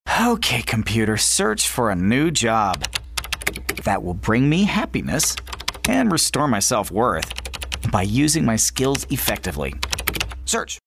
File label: real youthful